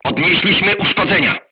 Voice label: voice message player tank hit, polish